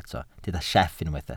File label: "sieff", Welsh